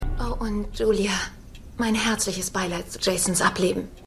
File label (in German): Oberklassefrau, Stimmproben; Revolution